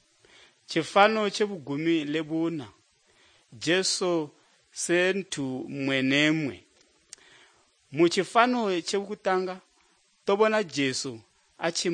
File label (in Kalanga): good news kalanga lilima, drama